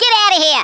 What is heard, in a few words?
"Go away", Australian; Worms Armageddon